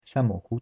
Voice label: "car", polish